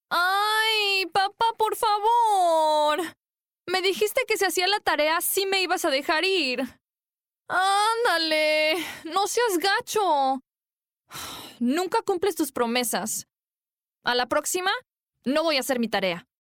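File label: Latin American Spanish narrative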